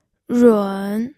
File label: "run3", pinyin